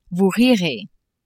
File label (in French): "vous rirez" French